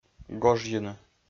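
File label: "гожйыны", Komi, male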